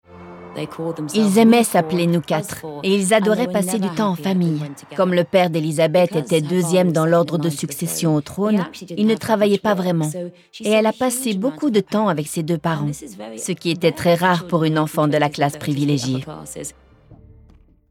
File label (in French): voice over voix; histoire elisabethII